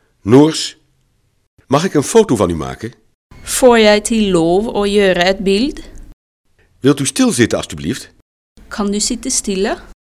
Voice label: noors; Mag ik een foto van u maken?

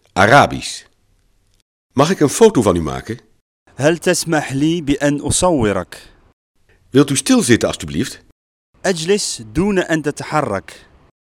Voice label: arabisch; Mag ik een foto van u maken?

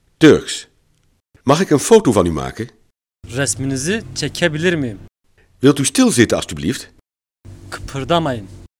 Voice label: turks; Mag ik een foto van u maken?

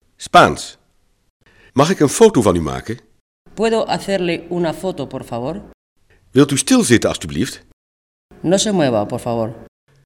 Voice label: spaans; Mag ik een foto van u maken?

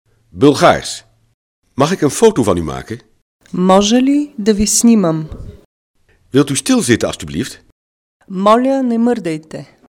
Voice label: bulgaars; Mag ik een foto van u maken?

